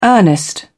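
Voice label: "earnest", British English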